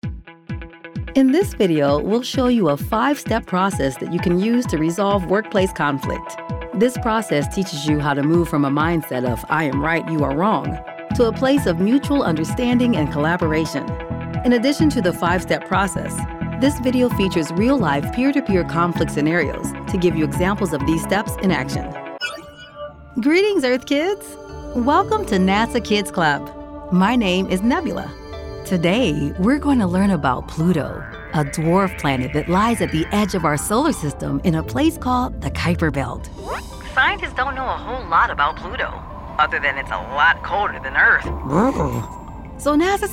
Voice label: narration e-learning